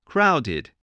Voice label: "crowded", spelling

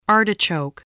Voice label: "artichoke", English

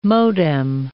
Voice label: "modem", English

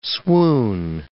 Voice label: "swoon", English word

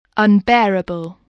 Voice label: "unbearable", English spelling voice

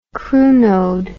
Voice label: "crunode", english

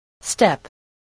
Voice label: "step", voice spelling

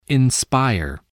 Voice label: "inspire", voice spelling

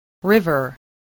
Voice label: "river", English spelling

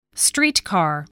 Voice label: "streetcar", spelling

